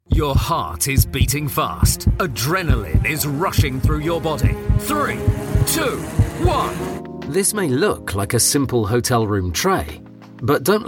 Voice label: commercial, Quirky Warm Voiceover